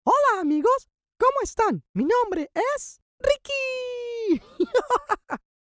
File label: Spanish Character, bilingual voice talent; los angeles california